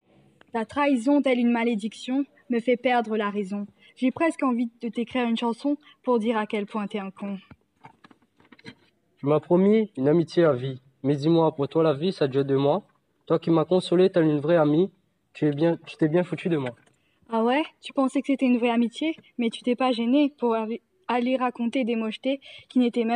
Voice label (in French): Slam la dispute